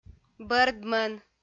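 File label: "бӧрдмӧн", Komi, female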